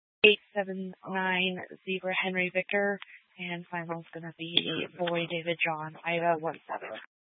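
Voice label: Sheriff Dispatch, Scanner audio recording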